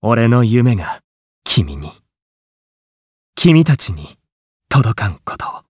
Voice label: Vox, jp; Siegfried; kings raid

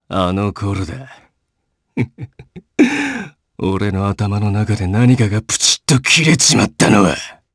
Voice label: Vox Skill jp; Ezekiel; kingsraid

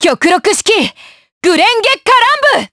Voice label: Vox Skill, jp; Seria; kings raid

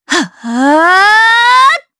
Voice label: Casting, jp; kingsraid

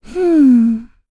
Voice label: Vox Thinking, en; kingsraid; voices heroes

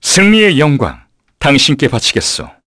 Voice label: Vox, kr; heroes; Fluss; kingsraid

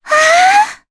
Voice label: Vox Happy, jp; Cassandra; kingsraid

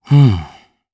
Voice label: Vox think, jp; Kasel; kings raid

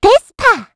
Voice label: Vox, kr; Estelle; Vespa; kingsraid

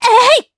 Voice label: Attack, jp; Laudia; kingsraid

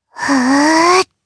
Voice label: Casting, jp; Lewsia; Kingsraid

